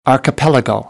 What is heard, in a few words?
"archipelago", American English; ə - ɪ